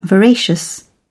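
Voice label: "voracious", British English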